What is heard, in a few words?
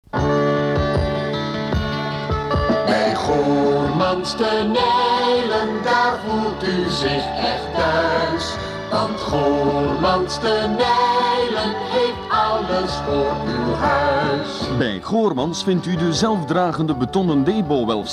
commercial